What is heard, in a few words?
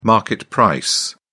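"market price", English, British English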